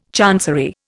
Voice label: "chancery", Indian

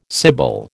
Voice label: "sibyl", American

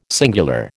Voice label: "singular", American

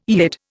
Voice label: "Yid", Indian

